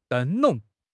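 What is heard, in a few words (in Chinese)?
"灯笼", Chinese; HSK6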